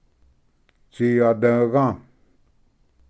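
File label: "tsiyadvga", Cherokee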